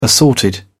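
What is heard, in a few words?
"assorted", British English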